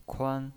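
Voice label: "kuan", pinyin, Mandarin Chinese, first tone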